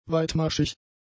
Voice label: "weitmaschig", German; adjectives